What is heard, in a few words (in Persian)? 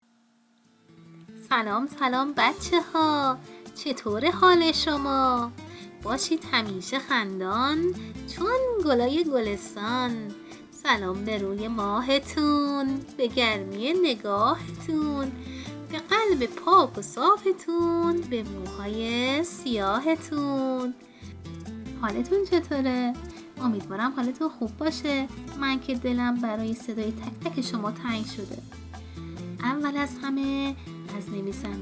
voice, قصه گویی کبوتر و مورچه